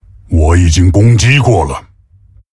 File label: Male Tauren, zh; ERROR I ATTACKED